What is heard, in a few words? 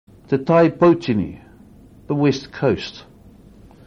te tai poutini, maori words